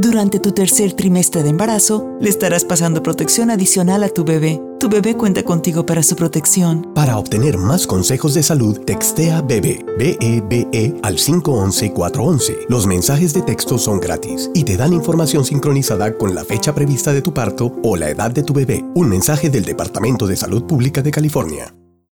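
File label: Whooping Cough Spanish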